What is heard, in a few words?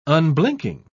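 "unblinking", British English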